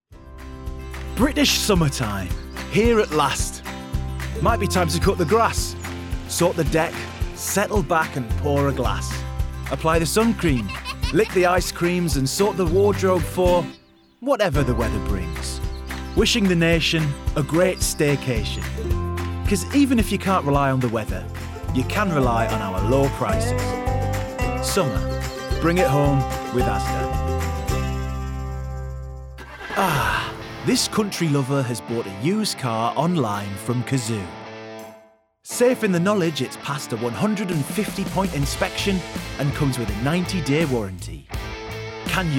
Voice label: Commercial, Northern English

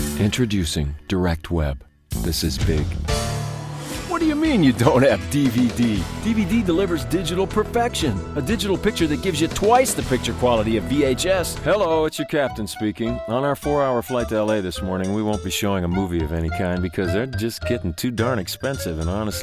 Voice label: Voiceover

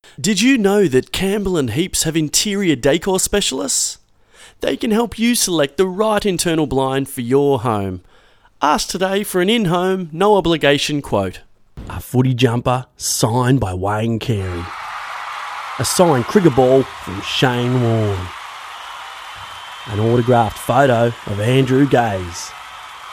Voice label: Voice artist showreel

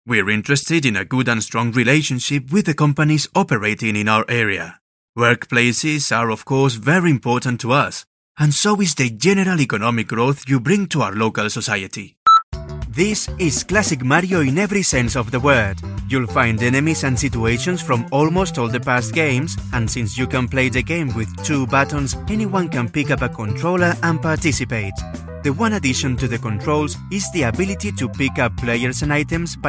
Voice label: English

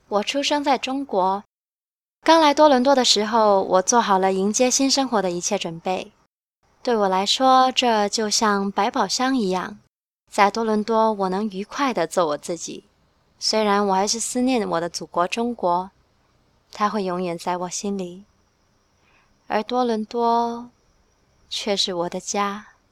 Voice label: Mandarin telling own story

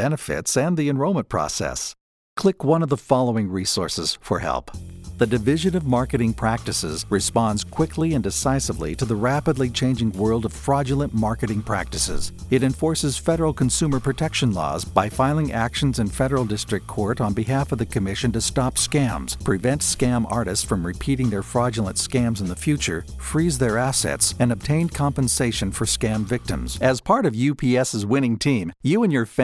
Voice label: American English Corporate Voice Over

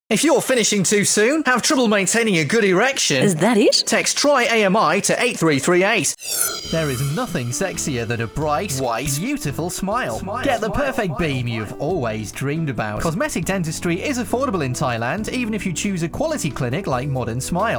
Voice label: COMMERCIALS

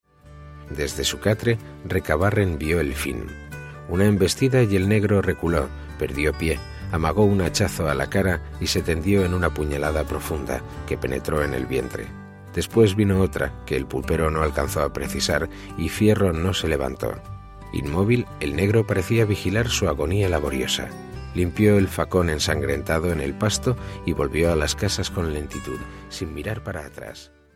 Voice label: audiobook

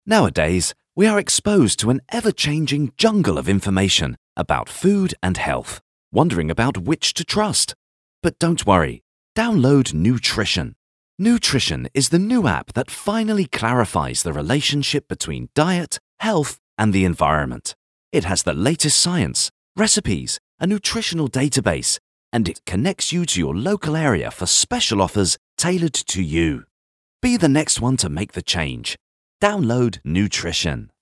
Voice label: Friendly

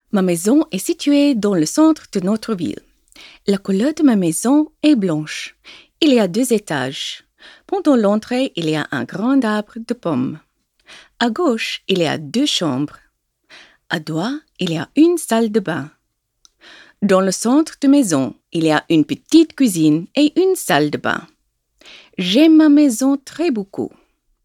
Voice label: French Promo

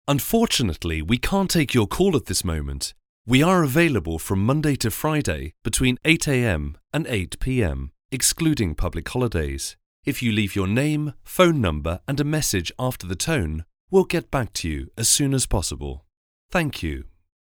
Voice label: Telephone Message

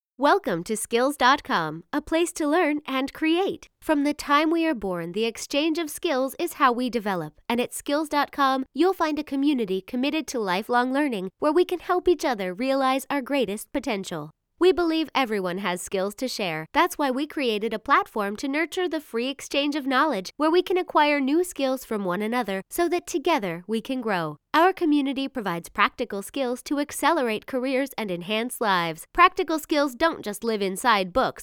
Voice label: Explainer Video

